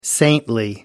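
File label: "saintly", British English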